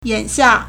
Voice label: "yan3xia4", Chinese